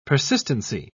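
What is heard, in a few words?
"persistency", British English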